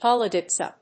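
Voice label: "Polydipsia", English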